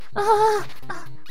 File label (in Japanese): ダメージボイス; 悪魔城ドラキュラ(FC版)